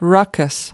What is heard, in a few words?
"ruckus", us